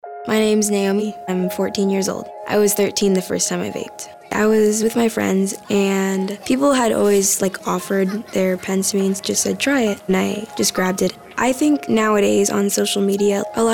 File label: Teen Testimonial; E-cigarettes